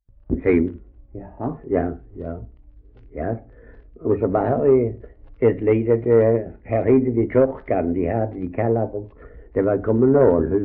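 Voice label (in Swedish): Ausås Skåne, Svenska sagor dialekter; Folkminnen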